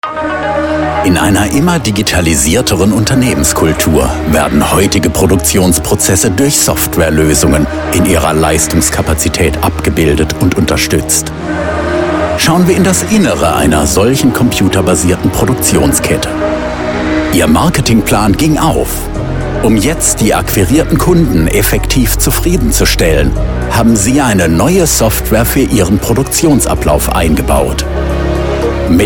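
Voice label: German Corporate; GoLocalise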